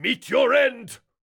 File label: Melee Miniboss Mid Combat Line